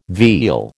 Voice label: "veal", American

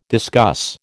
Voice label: "discuss", American

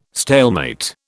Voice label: "stalemate", British

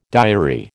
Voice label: "diary", American

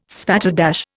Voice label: "spatterdash", Indian

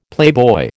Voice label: "playboy", American

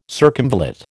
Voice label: "circumvallate", American

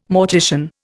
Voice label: "mortician", Indian

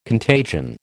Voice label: "contagion", American

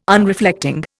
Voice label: "Unreflecting", Indian

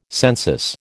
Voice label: "census", American

